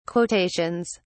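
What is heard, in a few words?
"quotations", English, uk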